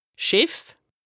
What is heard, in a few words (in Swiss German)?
"'s Schìff", Alsace, HAUT RHIN; bateau; lexique indispensable